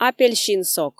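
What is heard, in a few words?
"апельсин сок" Komi, female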